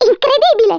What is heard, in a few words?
amazing, Speech Italian